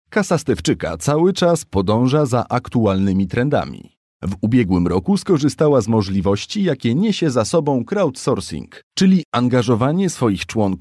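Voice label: Polish, male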